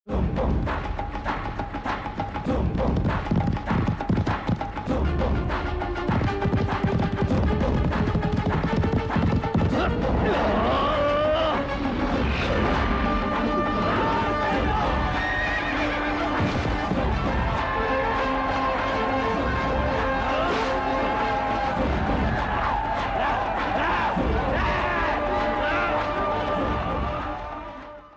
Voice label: Dialog; Magadheera

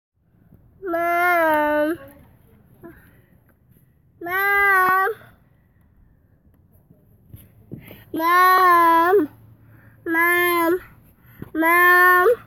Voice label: Toddler calling mum

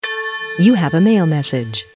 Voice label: Female Voice Email

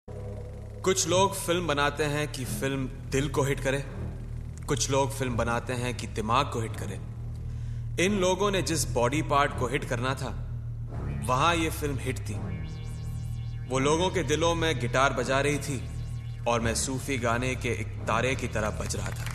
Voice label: Dirty Dialogue

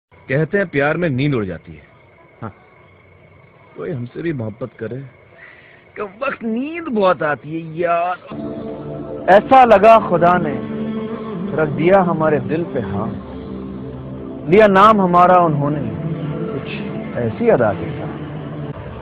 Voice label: Romantic dialog

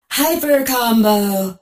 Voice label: Female Robot Hyper

